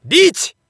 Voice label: Narration REACH; JAN